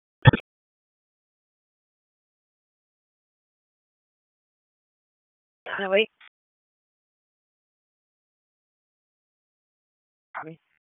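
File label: EMS Dispatch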